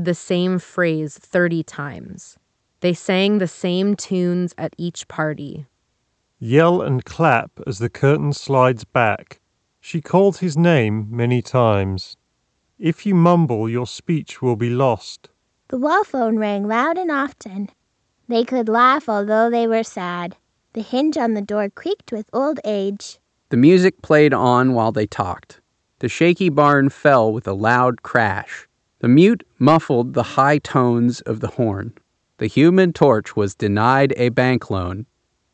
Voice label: Ideal microphone sample; Logitech G333